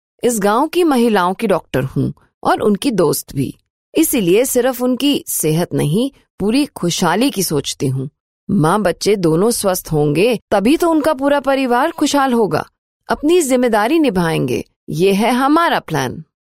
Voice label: Character Hindi, female voice